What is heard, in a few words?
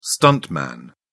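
"stuntman", English, uk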